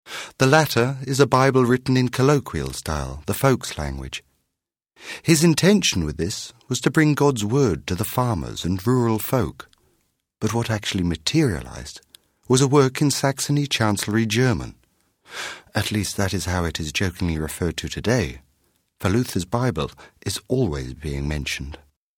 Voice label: character voice; Boniface; He’s versatile and has worked for cartoons, jingles and adverts.